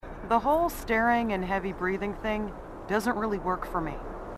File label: female marine; marine sassy; h2dialogue